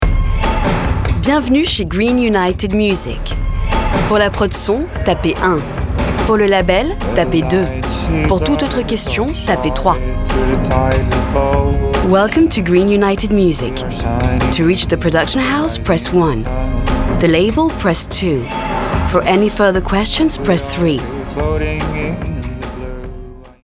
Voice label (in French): serveur vocal; FR GB; GUM Production